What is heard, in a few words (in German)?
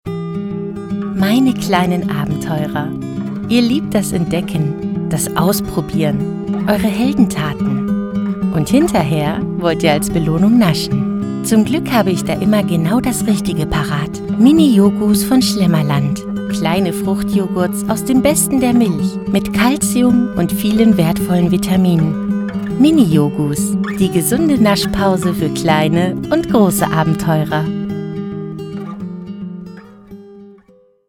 Werbung Joghurts